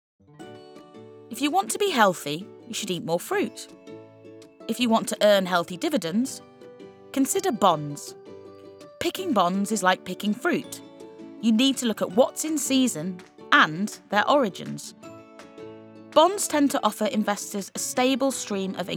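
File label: Corporate voiceover; Schroeders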